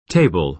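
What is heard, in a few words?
"table", American English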